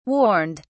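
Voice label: "warned", English, British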